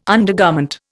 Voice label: "undergarment", Indian

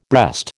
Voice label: "breast", American

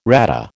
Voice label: "RADA", American

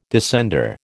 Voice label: "descender", American

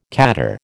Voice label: "khaddar", American

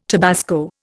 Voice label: "tabasco", Indian

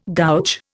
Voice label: "gouge", Indian

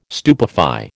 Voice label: "stupefy", American

